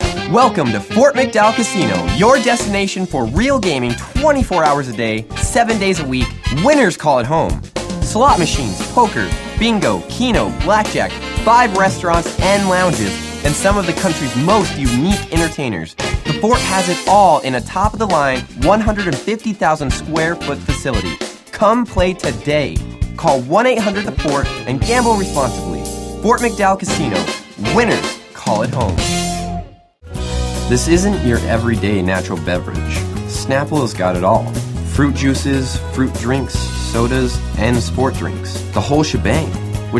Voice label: voiceover male